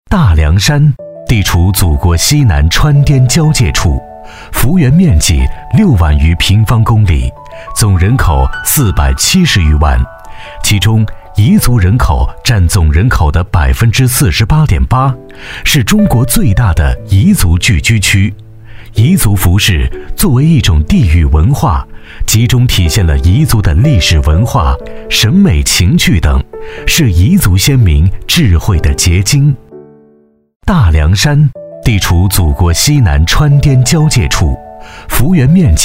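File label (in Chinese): 男S347 国语 男声 纪录片-城市纪录片-成熟大气 低沉 大气浑厚磁性 沉稳 娓娓道来; 大凉山-城市纪录片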